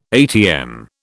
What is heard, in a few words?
"atm", British